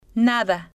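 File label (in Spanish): "nada", spanish, female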